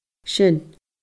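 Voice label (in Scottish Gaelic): "sin", Scottish Gaelic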